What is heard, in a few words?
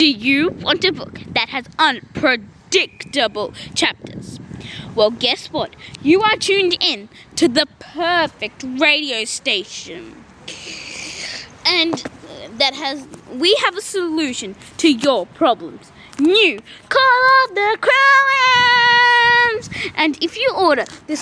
advertisement